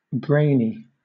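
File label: "brainy", British English; pronunciations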